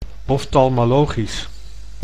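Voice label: "oftalmologisch", Dutch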